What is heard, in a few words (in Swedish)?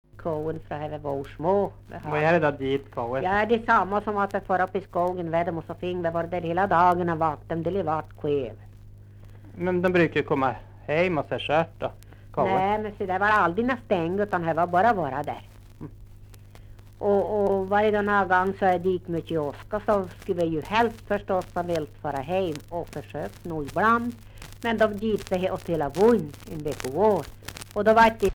Svenska sagor, dialekter; Folkminnen